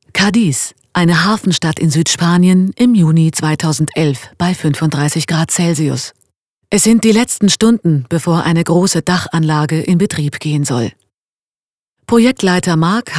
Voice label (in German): Sprache Reportage